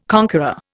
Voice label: "conqueror", Indian

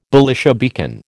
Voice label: "Belisha beacon", American

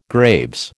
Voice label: "Graves", American

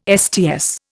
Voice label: "Sts", Indian

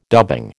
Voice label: "dubbing", American

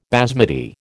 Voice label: "basmati", American

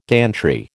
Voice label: "gantry", American

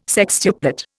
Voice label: "sextuplet", Indian

